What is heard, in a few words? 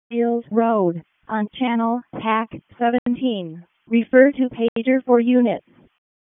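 Raleigh Fire Department ALERT, p25; wake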